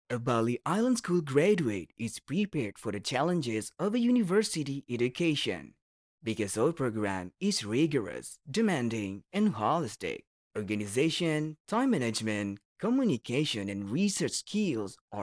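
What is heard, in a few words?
ENGLISH FORMAL, smiley voice is somehow soothing and believable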